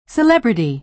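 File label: "celebrity", American English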